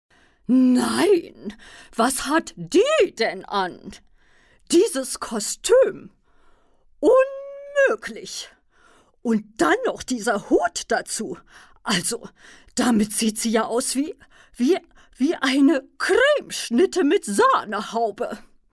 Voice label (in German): komisch